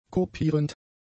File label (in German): "kopierend", German; adjectives